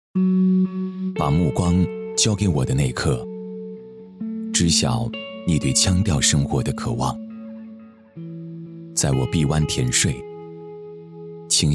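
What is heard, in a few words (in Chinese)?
男, 内心独白, 腔调生活, 普通话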